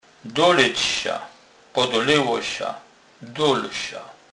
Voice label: "dolec", kurpie; slownik

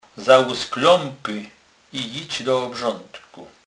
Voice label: "klap", kurpie

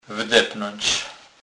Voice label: "wdepnac", kurpie

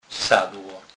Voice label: "sadlo", kurpie

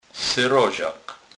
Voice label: "serozak", kurpie

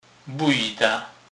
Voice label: "bujda", kurpie; slownik

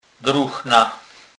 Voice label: "druhna", kurpie; slownik

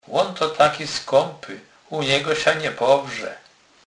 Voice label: "powrzec", kurpie

